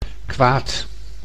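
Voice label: "kwaad", Dutch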